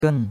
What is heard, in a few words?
"gen4", chinois; Cours de Chinois